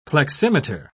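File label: "pleximeter", British English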